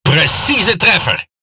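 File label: NL Speech Hit Confirmation, Dutch; World Of Warships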